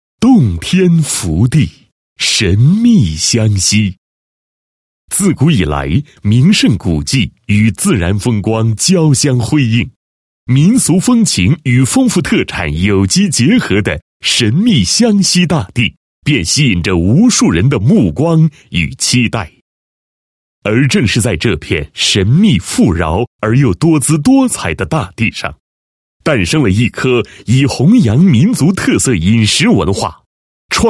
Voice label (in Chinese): 男 专题; 创建民族特色餐饮第一品牌